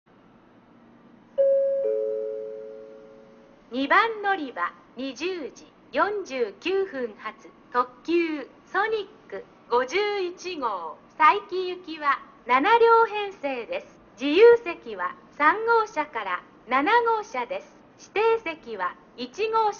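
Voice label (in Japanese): 2番のりば案内放送, 中津駅; ソニック51号 佐伯行き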